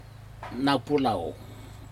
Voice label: "naupulau", shin, Aneityum